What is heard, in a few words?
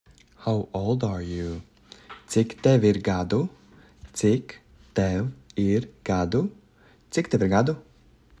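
How old are you, Latvian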